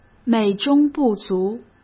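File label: 美中不足, Mandarin Chinese; chinese flashcards; hsk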